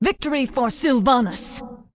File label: Undead Female Warrior NPC Farewell